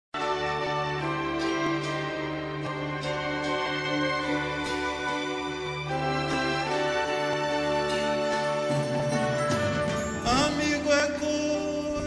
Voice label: Amizade Geral Feminino

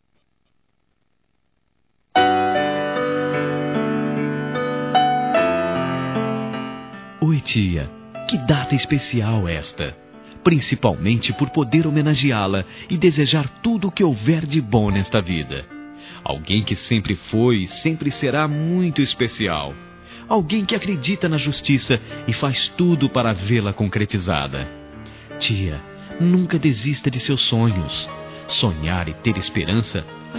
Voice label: Aniversário Tia Masculino; Aniversários; Aniv Familiar

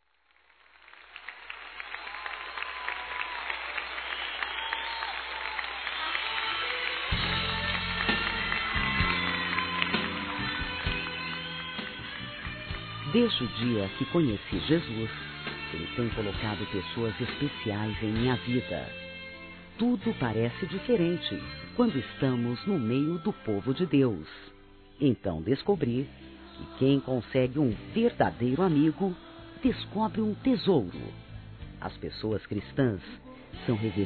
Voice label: Amiga Feminino, Telemensagens Aniversários; ANIV. Evangelico

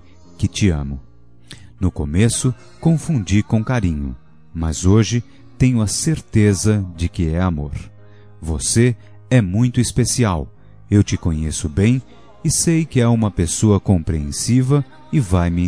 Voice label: MINHA AMIZADE VIROU PAIXAO MASC; Telemensagens

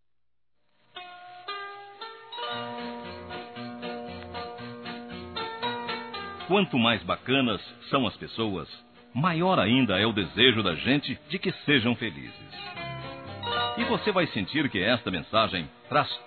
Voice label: ANO NOVO P AMIGA M; Telemensagens; Natal e Ano novo; masc

